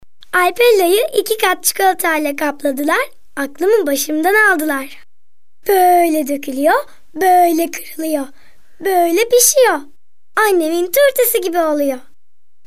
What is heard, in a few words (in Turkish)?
KIZ COCUK